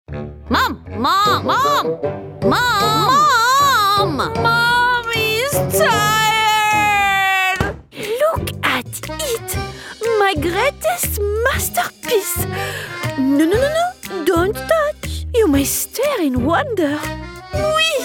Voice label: female English and French multiple accents animation compilation